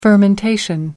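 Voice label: "fermentation", English, British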